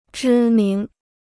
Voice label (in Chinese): "知名", Chinese